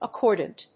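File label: "accordant", English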